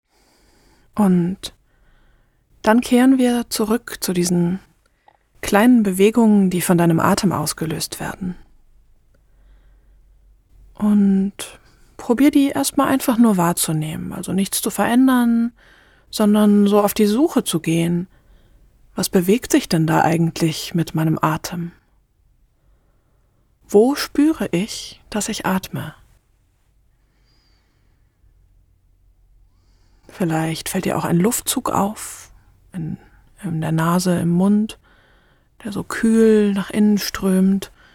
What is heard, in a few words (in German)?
Meditation, sprecherin